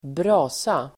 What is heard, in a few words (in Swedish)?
"brasa", Swedish